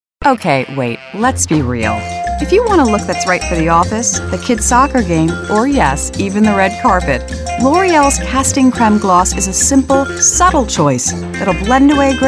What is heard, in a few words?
commercial women